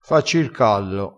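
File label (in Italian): "facci r callo", vocabolario dialettale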